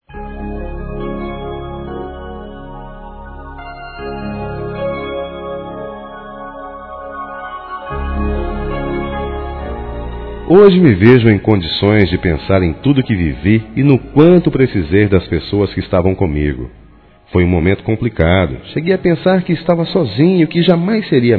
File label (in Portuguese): Obrigado pela força, masc; Telemensagens; Convites e Agradecimentos; Telemensagens 2017